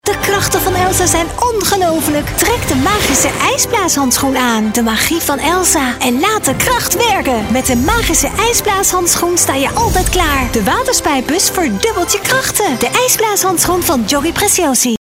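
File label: doblaje, Dutch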